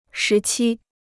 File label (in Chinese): "时期", Chinese